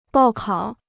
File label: "报考", Chinese